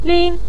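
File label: "ling" neutral tone, Mandarin Chinese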